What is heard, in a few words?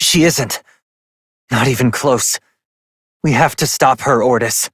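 Voice, English; New War; Quarters; Operator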